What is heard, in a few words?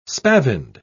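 "spavined", British English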